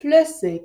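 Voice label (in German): "flüssig", German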